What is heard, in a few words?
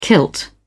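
"kilt", British English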